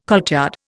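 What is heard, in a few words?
"courtyard", Indian English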